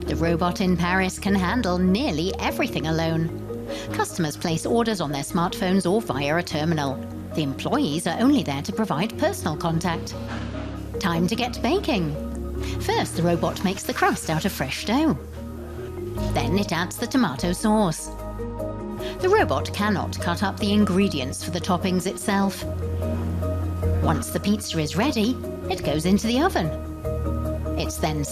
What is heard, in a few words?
voice artist lively tv documentary